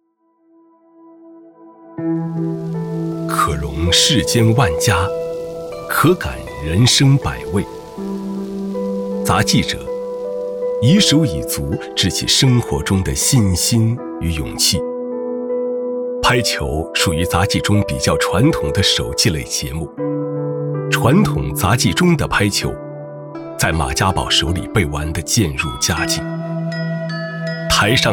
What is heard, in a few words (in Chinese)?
男 申遗纪录片 人文纪录片